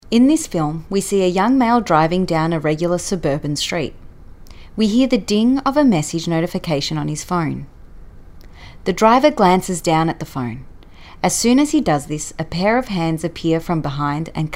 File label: Narrative Read